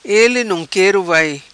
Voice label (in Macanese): Ele nom quero vai, Macanese